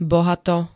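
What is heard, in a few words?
"bohato", Slovak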